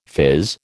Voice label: "phiz", American